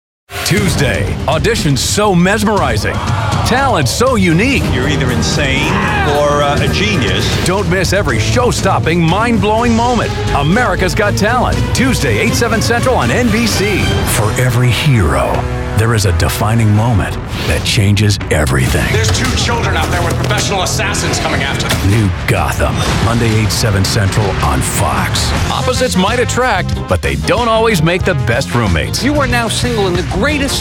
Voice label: promos

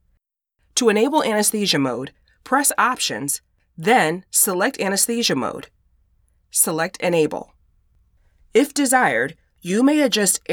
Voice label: Elearning

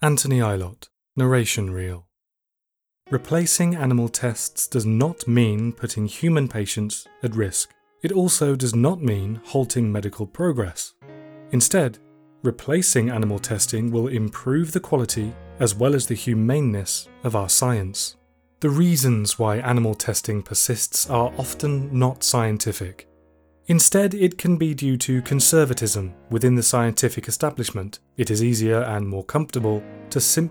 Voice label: Narrative; Audere Talent

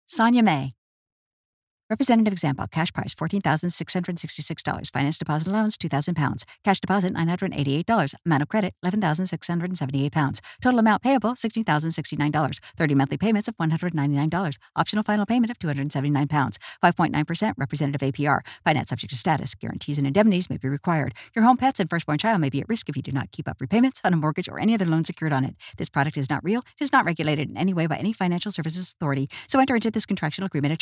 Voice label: Speed Reading

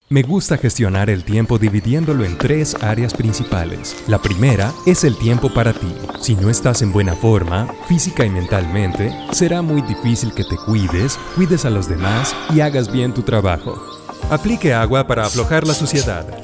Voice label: eLearning

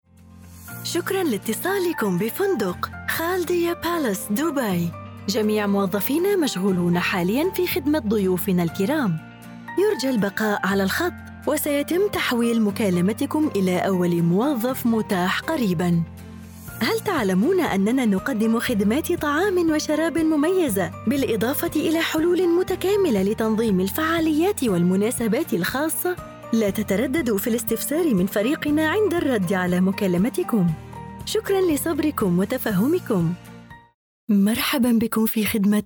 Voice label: MSA FRIENDLY IVR MSA Formal IVR

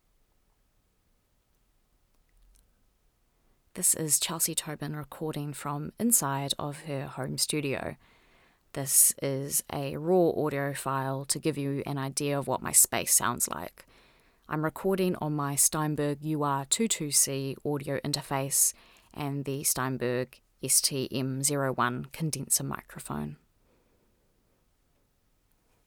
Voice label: Home studio test raw

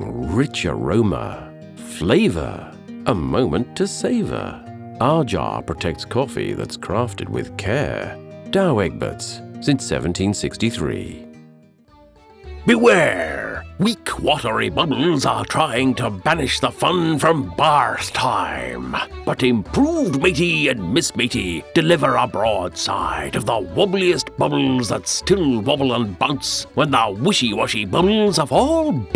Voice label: Commercial Reel

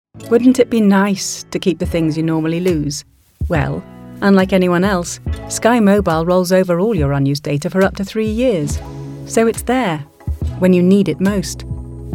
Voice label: Commercial Reel

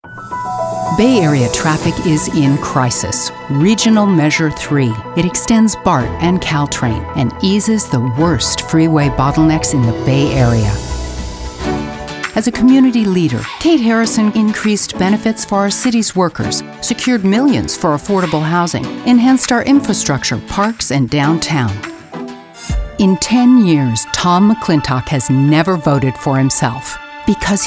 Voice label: Political VO

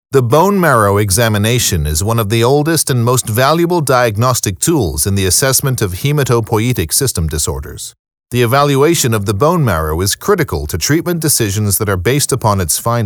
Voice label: Medical Narration

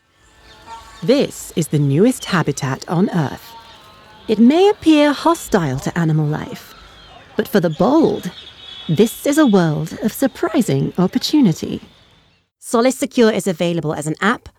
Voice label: UK Eng Female

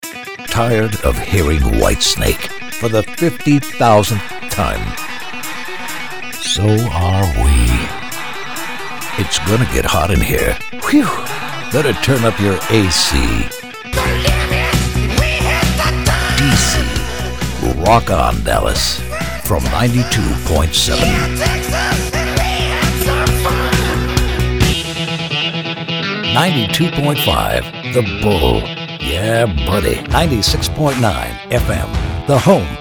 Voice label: radio

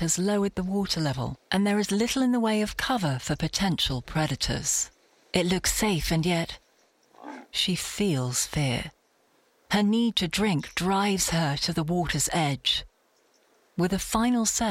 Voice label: Narr Gazelle v Croc Doc

